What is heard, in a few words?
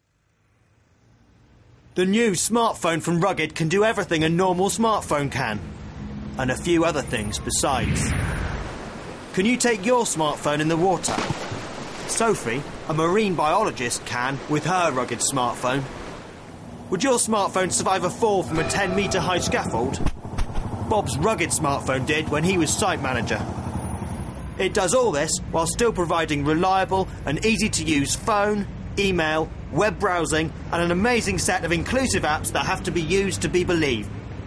builder, voiceover; Friendly Builder